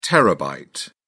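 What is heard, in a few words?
"terabyte", British English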